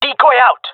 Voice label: throwing decoy, male; player vo; Counter Strike Model Pack; CS:GO